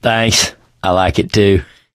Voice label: world generic, mechanic; source engine; left 4 dead 2

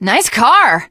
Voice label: initial meeting, teen girl

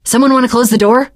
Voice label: close the door, teen girl, survivor voice; source engine; left 4 dead 2

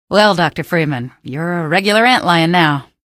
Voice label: pheropod nag, male; hl2; coast; bugbait; Source engine

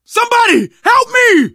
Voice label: help, survivor voice, manager

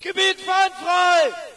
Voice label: ger area clear; player; Source Engine; dod

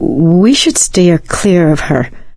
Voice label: heard witch, survivor voice; Left 4 Dead 2; Source Engine; video games; producer

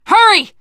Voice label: hurry up, teen girl; survivor voice

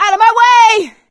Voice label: witch chasing; source engine; left 4 dead 2; survivor; producer

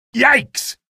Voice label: alert head, male; video games; npc; source engine; episodic; episode 1

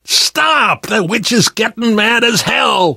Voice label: witch getting angry, survivor voice

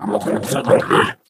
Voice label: angry

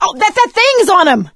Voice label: hunter pounced, survivor voice; Left 4 Dead 2; Source Engine; video games; producer

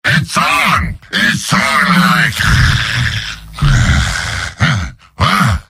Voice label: demoman gibberish; mvm

